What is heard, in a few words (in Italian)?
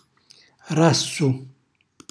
"rassu", Calabrese; lontano; cucina calabrese